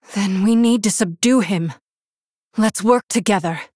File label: Human Female